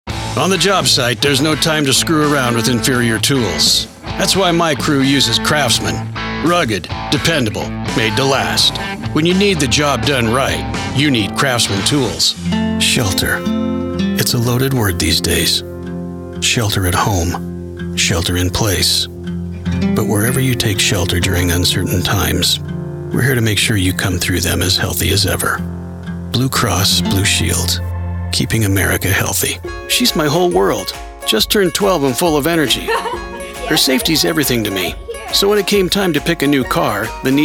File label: Commercial